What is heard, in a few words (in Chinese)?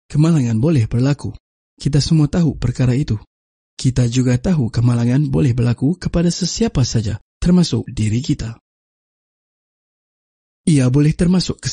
马来西亚语男, 外语, 小语种